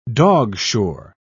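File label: "dogshore", British English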